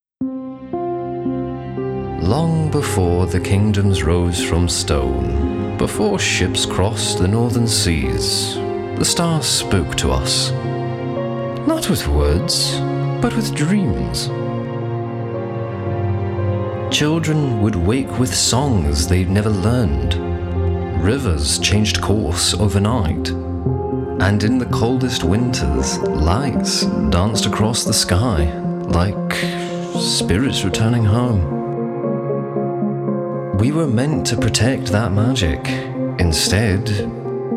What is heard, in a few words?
VO F STORY TELLER; character performance voice test